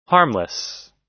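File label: "harmless", US English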